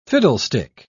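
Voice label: "fiddlestick", English, British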